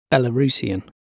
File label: Belarusian